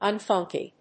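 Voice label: "unfunky", English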